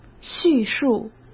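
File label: "叙述", Mandarin Chinese; hsk; chinese-flashcards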